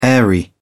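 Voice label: "airy", British English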